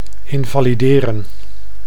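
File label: "invalideren", Dutch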